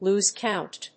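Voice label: "lose count", English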